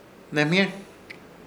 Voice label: "Hnemhyer" rapido, Ishir Chamacoco

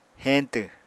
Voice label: "heñtw", apatani

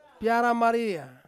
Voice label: "piara maria", Ansus; freshwater eel

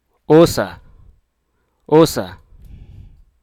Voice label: "duck", hruso

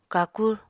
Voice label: "kakur", Apatani

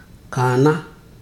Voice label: "become old", Ibibio

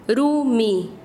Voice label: Chanka, quechua; piedra

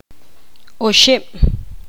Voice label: poqomam; tres

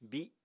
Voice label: "bíi", Apatani

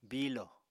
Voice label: "biilo", apatani

